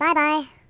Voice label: "Bye bye", voices; hedgewars

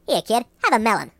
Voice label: Melon, voices Mobster; hedgewars

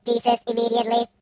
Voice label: Leave me alone, Robot

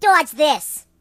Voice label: Kamikaze, Mobster; hedgewars

